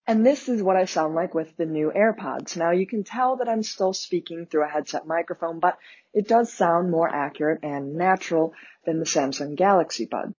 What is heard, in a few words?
New Apple AirPods microphone audio; Apple AirPods (2019)